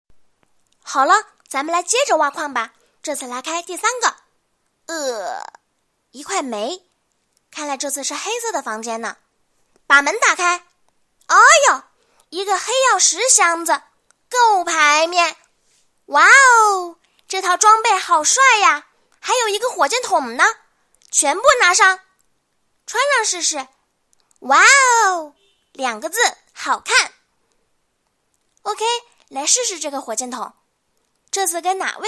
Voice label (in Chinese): 游戏 配音